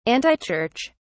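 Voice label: "antichurch", English, British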